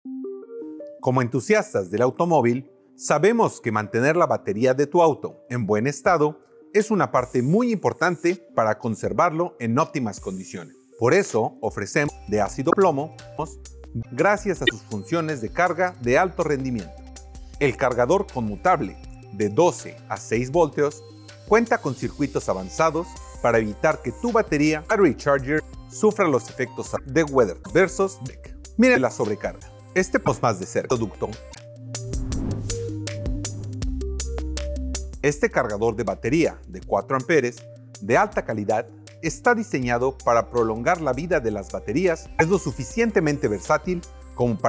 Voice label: Spanish; Battery Charger